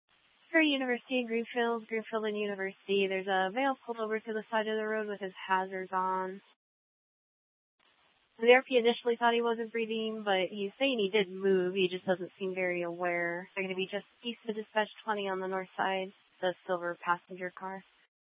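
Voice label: police department dispatch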